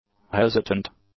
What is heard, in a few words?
"hesitant", English; adjectives